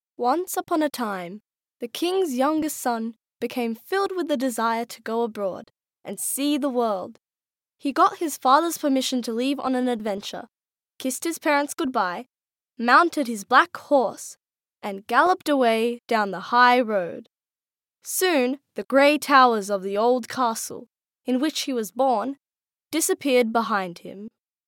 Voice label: narrations, english